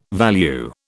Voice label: "value", British